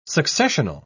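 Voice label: "successional", English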